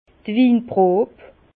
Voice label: "winprob", Bas Rhin; la séance de dégustation; vin

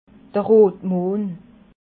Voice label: "rotmohn", alsace

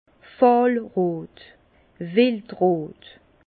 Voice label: "fahlrot", Bas Rhin; vin; fauve

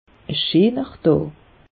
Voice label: "scheener daa", alsace; meteo

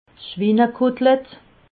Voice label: "schwinekotlette", alsace

